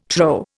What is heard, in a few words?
"trow", Indian